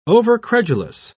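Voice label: "overcredulous", British English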